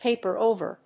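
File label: "paper over", English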